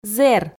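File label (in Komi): "зэр", Komi, female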